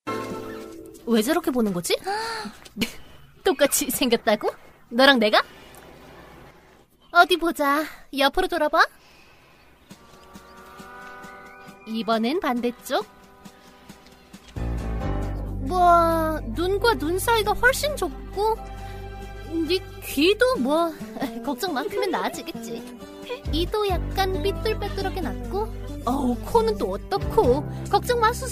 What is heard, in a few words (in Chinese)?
韩语女声